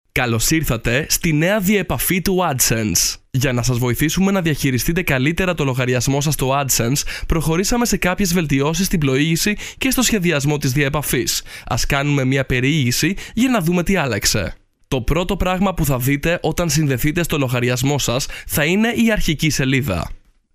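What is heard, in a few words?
locutor griego greek voiceover, male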